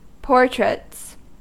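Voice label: "portraits", American English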